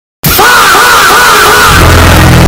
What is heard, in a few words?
fahh meme earrape